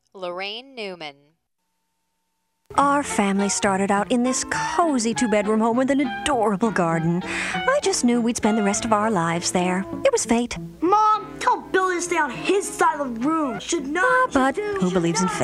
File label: commercial